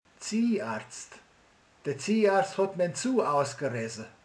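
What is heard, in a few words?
"fogorvos", Hungarian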